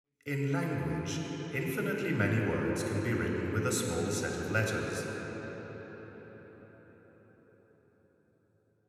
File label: Speech Ambisonics; DTS